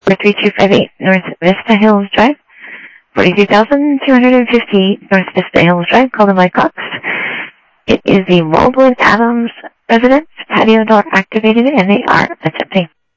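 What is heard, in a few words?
Sheriff East County, scanner audio trunk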